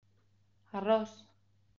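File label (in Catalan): "arros", Catalan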